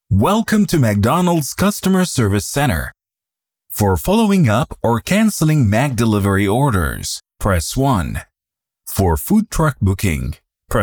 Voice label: Welcome Message IVR English